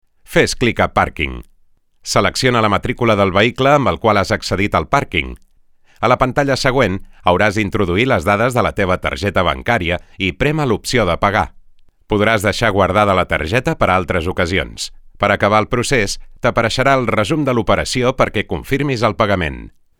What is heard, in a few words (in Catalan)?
APP Catala VO